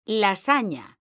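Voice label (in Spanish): "LASAÑA", female; mekanta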